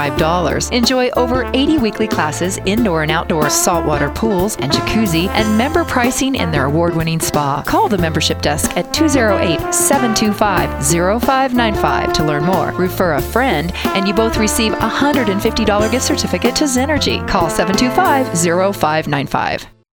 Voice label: Young Adult